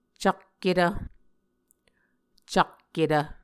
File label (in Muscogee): "cakketv", Muscogee; Mvskoke Words with Repeated Consonants; Martin, Jack B., and Margaret McKane Mauldin. 2023. A Dictionary of Creek/Muskogee